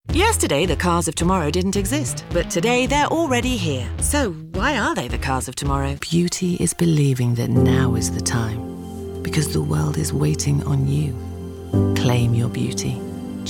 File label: commercial